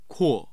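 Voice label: "kuo", Mandarin Chinese, tone 4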